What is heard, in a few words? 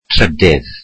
"third", Welsh